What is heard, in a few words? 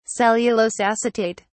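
"cellulose acetate", British English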